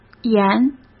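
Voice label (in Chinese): "沿", Mandarin Chinese; chinese flashcards; hsk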